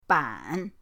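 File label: "ban", Mandarin pinyin, third tone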